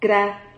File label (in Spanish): "cra", Silabas